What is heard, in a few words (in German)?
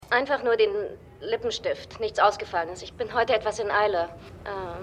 Junge Frau; The Good Fight